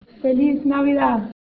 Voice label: Spanish, christmas